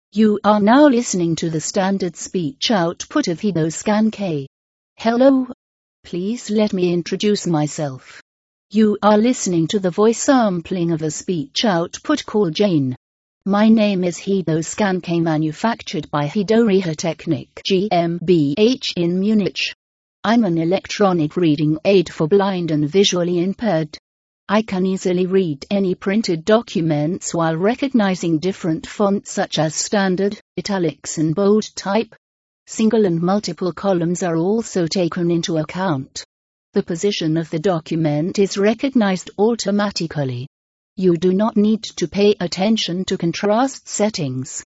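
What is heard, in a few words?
British English, Sprachproben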